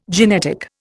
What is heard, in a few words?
"genetic", Indian